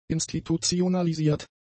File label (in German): "institutionalisiert", German; adjectives